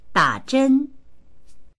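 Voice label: "da3zhen1", Chinese; dǎzhēn; 打针; 注射する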